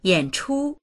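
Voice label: "yan3chu1", Mandarin Chinese; yǎnchū; 演出; 上演する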